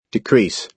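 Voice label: "decrease", American English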